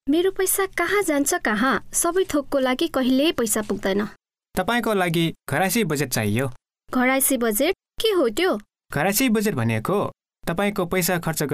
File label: household budgets nepalese